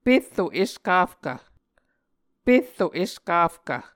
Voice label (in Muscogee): "perro eskafkv", Muscogee